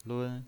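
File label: "lun", pinyin, Mandarin Chinese, first tone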